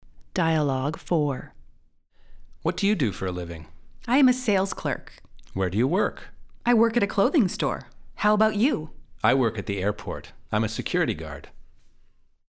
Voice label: Dialogue natural